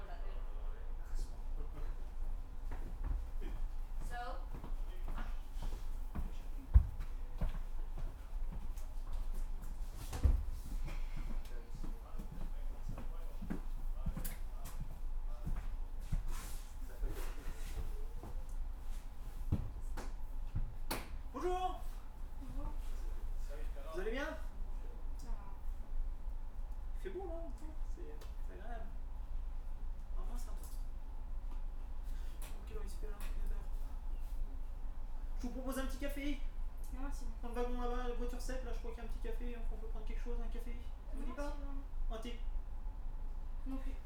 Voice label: Harass French